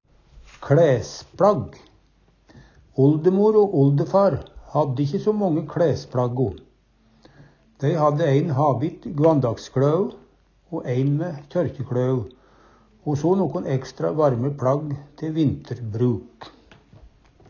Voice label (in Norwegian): "kLæspLagg", Numedalsmål